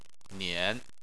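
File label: "nian", Chinese, third tone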